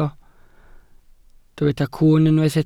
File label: "cwwn", Welsh